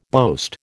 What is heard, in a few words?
"boast", American